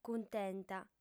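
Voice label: "cuntenta", female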